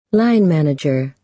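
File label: "line manager", American English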